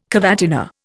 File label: "cavatina", Indian